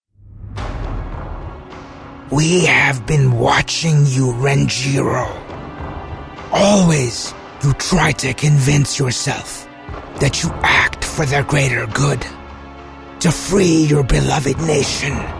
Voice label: English, male voice